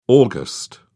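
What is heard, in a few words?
"aug", British English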